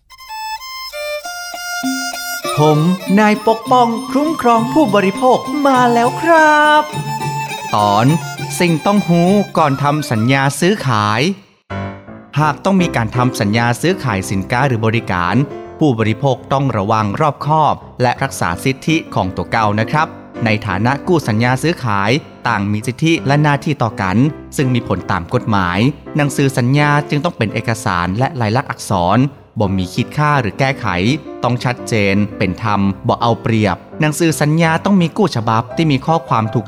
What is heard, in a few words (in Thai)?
สปอตวิทยุ ภาคเหนือ; สื่อประชาสัมพันธ์; สคบ.; สำนักงานคณะกรรมการคุ้มครองผู้บริโภค